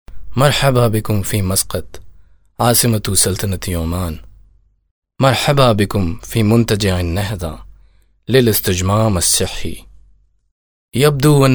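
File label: arabic male voice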